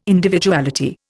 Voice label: "Individuality", Indian

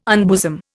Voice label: "unbosom", Indian

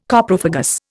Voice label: "Coprophagous", Indian

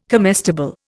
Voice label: "comestible", Indian

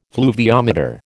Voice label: "fluviometer", American

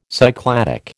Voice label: "Cycladic", American

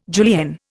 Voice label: "julienne", Indian

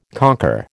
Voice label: "conquer", American

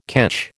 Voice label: "ketch", American

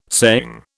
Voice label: "saying", British

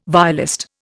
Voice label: "violist", Indian

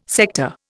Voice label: "sector", Indian

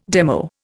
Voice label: "demo", Indian

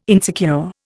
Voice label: "insecure", Indian

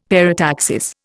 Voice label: "parataxis", Indian; Dictionary

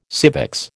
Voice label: "civics", American

